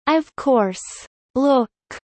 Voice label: Kelly low; spring festival